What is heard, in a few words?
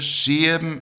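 "siebm" Low German, SEPTEM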